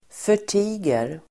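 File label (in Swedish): "förtiger", Swedish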